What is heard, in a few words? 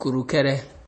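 "kurukere", Nigerian Pidgin, male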